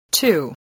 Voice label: "two", English spelling voice